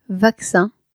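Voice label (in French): "vaccin", French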